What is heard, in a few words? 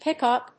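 "pickup", English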